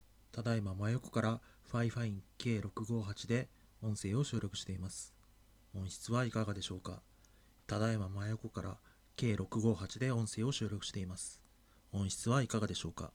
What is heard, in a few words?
FIFINE K658 side